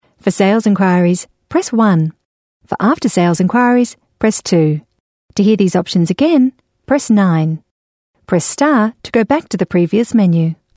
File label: IVR